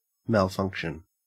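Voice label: "malfunction", Australian English; pronunciations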